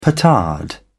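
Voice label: "petard", British English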